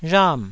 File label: "rham4", taiwanese